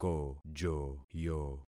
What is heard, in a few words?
"kojoyo", concat syllables; Affixing experiment